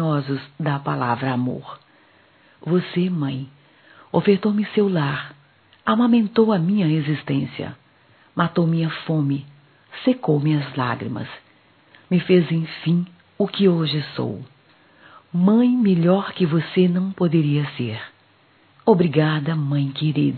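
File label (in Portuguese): filha para mãe, telemensagens, Dia das Mães; Telemensagens 2017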